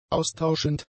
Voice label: "austauschend", German; adjectives